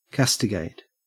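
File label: "castigate", Australian English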